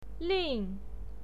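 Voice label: "lin", pinyin, fourth tone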